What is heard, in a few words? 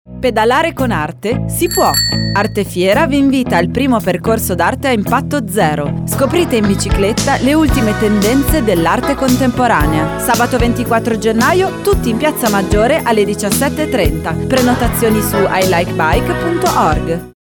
spot